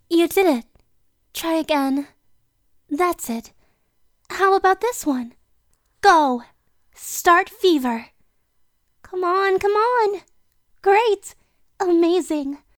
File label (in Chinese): 外籍女 青少年模仿